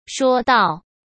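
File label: "说道", Mandarin Chinese; hsk; chinese-flashcards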